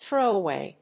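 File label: "throw away", English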